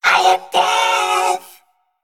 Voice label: Male Mech Death